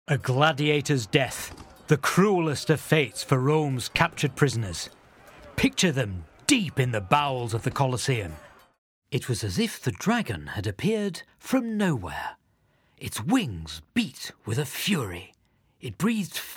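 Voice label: British, narration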